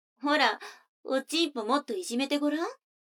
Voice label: 女の子 台詞, ASMR

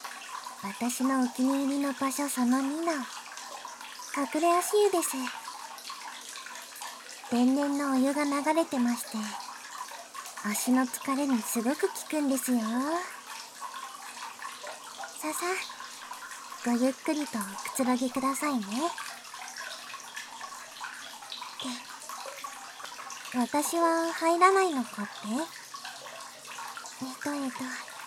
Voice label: ゆったり二人で足湯（バイノーラルマイク編集 効果音あり大きめ）, ASMR; バイノーラルマイク収録 効果音あり; 効果音大差分

